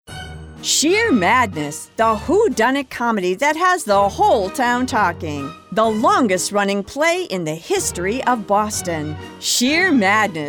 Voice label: COMMERCIAL